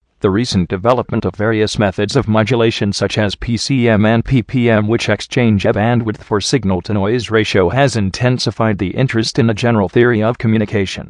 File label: speech eng